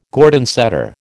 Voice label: "Gordon setter", American